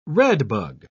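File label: "redbug", English, British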